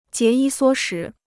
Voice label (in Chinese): "节衣缩食", Chinese